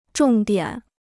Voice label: "重点", Chinese

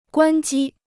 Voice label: "关机", Chinese

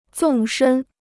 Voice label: "纵深", Chinese

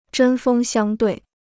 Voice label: "针锋相对", Chinese

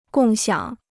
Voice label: "共享", Chinese

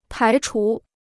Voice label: "排除", Chinese

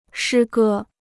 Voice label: "诗歌", Chinese

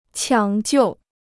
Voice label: "抢救", Chinese

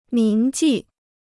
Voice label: "铭记", Chinese

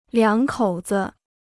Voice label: "两口子", Chinese

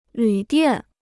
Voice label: "旅店", Chinese

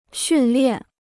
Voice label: "训练", Chinese

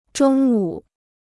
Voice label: "中午", Chinese

